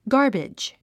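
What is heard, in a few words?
"garbage", English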